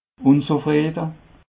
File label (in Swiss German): "unzufriede", alsace